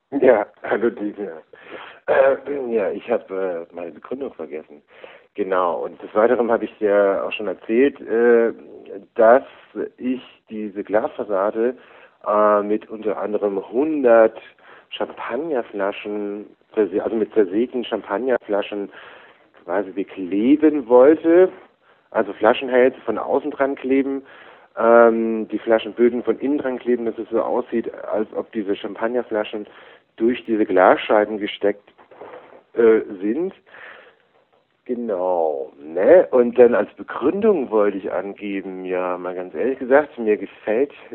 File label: Voicemail